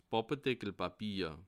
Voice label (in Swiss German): "pappeldeckelpapier", alsace